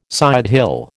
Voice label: "sidehill", American